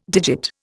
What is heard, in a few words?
"digit", Indian